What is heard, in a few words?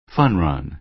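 "fun run", American English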